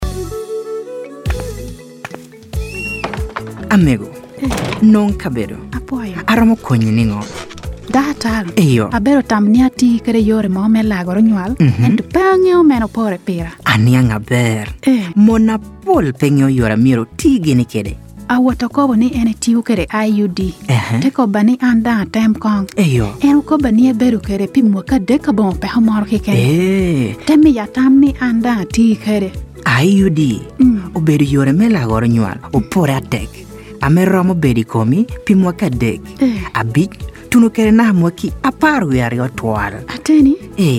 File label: IUD Health Worker Spot Langi; radio spots